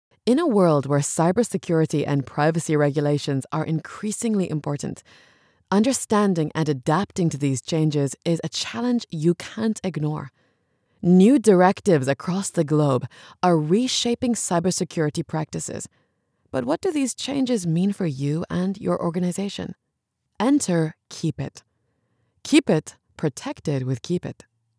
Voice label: english international e-learning

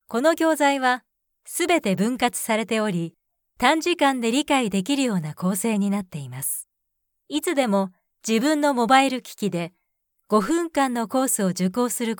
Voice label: japanese e-learning

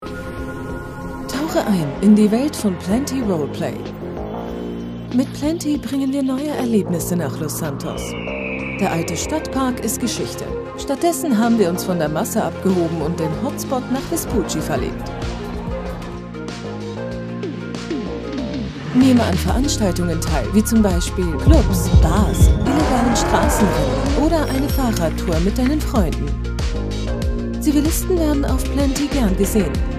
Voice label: german promos

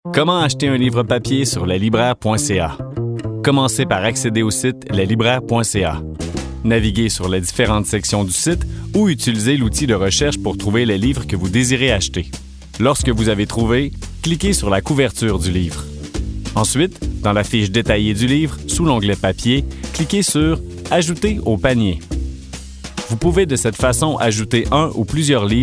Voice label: french canadian corporate videos

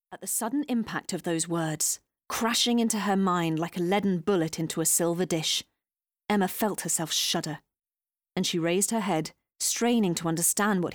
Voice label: English British audiobooks

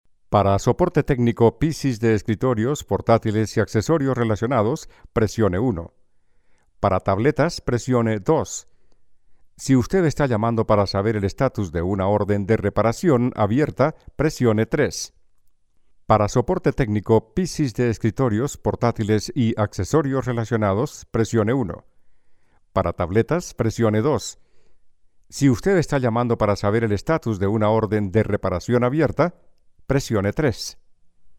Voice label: spanish colombian ivr

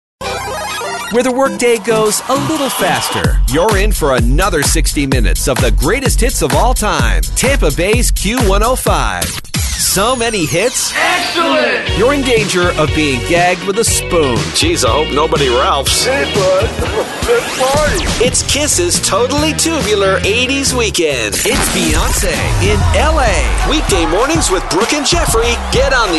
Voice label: english canadian radio imaging

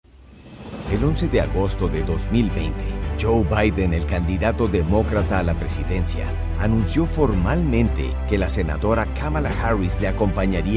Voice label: spanish latin american political ads

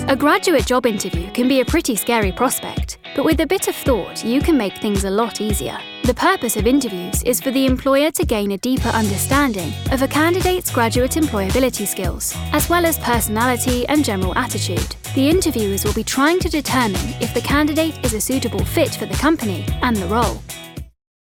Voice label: english british explainer videos

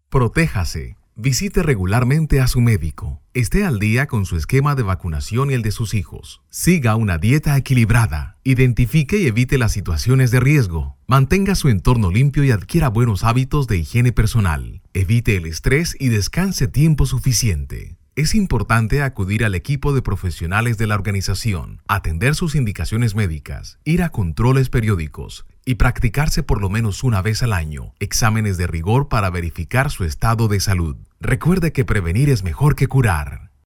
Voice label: spanish latin american

